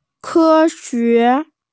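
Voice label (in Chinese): "ke1xue2", Mandarin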